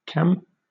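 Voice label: "cam" English, British accent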